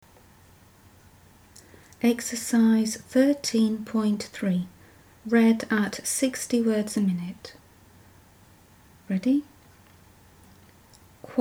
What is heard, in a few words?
60wpm; Teeline